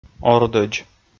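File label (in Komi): "ордӧдз", Komi, male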